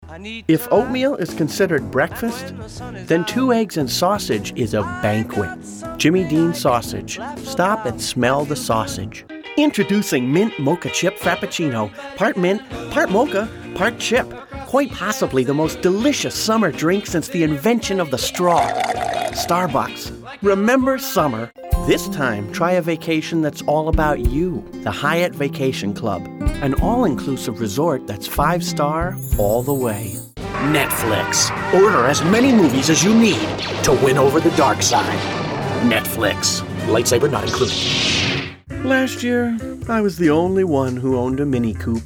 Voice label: commercial